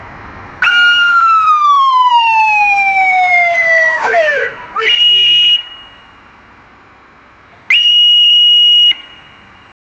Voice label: police officer yelling